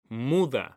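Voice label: "muda", Spanish, male; mekanta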